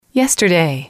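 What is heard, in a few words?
"yesterday", female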